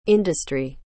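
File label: "industry", English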